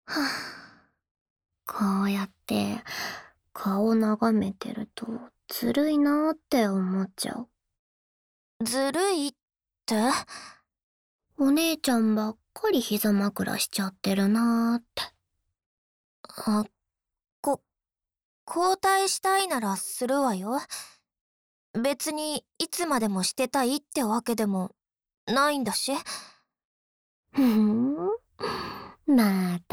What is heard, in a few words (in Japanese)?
耳かき後のひととき, ASMR